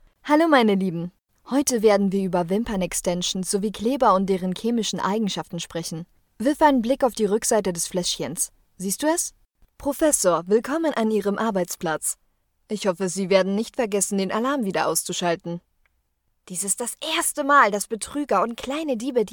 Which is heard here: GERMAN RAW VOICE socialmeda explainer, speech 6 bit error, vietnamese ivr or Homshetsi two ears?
GERMAN RAW VOICE socialmeda explainer